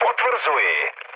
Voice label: chat, speech Czech; War Thunder

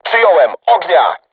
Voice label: voice message art shoot, crew tanks polish

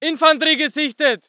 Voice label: voice ger infantry; Red Orchestra 41-45

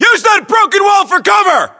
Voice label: order cover wall broken, battlechatter us

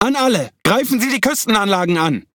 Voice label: Speech Quick Commands Enemy Building, DE; World Of Warships

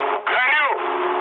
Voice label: on fire, mood low, speech ru; War Thunder

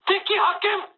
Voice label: enemy detected mood high, speech jp; War Thunder

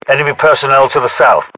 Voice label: UK threat infantry south, battlechatter

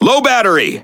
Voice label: Speech Alarms Sub Battery Low, EN; World Of Warships

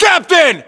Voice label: rank captain, us

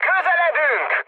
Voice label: near waypoint, mood high, speech hun; War Thunder

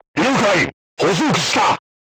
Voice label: voice message target lock, crew tanks japanese

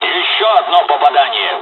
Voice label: japanese first wave, dialogs russian; War Thunder

